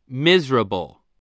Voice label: "miserable", voice, spelling